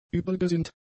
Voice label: "übelgesinnt", German; adjectives